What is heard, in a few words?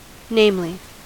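"namely", English, American accent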